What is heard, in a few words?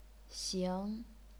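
"xing", chinese pinyin, second tone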